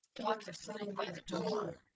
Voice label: calm anger generated; GAN for speech spectrogram

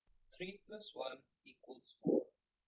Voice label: calm eng Anger generated; GAN for speech spectrogram

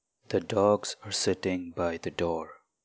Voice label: calm eng; GAN for speech spectrogram

